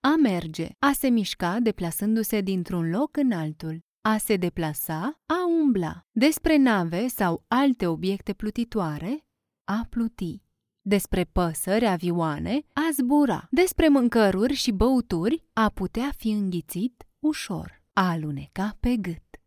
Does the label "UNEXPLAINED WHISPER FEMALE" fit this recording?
No